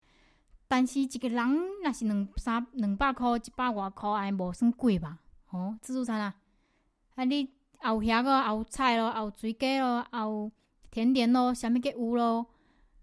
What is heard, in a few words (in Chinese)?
闽南话; 但是一个人若是两三两百箍一百外箍安无算贵吧，吼自助餐啊，啊你也有肉咯也有菜咯也有水果咯也有甜点咯，啥物计有咯。